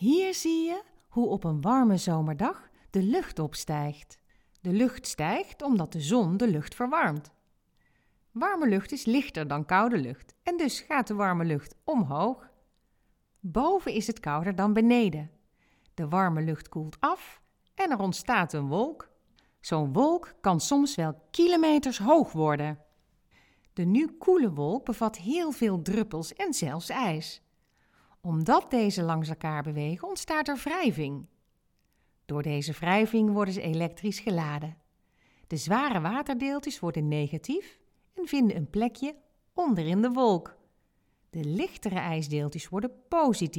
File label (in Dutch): Uitlegvideos; Bliksem